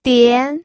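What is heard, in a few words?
"dian", pinyin, second tone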